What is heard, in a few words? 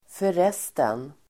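"förresten", Swedish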